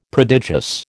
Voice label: "prodigious", American